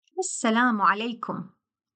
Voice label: Arabic Peace be upon you; Greetings